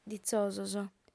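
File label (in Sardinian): "ditzosos", female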